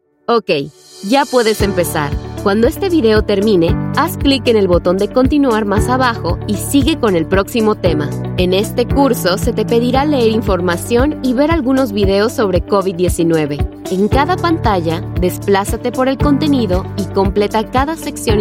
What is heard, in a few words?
US Spanish E-learning